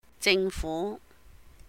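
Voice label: "jing fú", government, Cantonese; 政府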